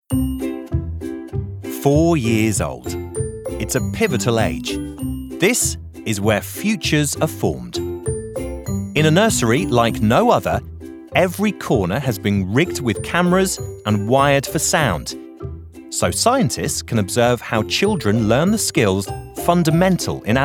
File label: Narration